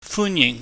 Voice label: "funyihng", Cantonese